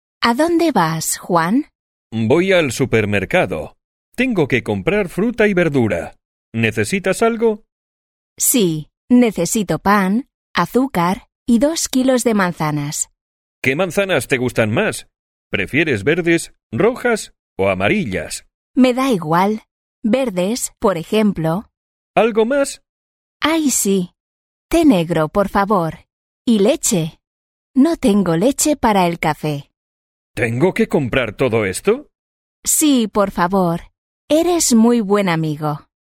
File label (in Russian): urok dialog